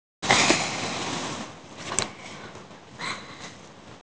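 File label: "fa4", Mandarin Chinese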